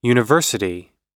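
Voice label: "university", English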